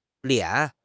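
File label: "lian3", Mandarin Chinese; HSK3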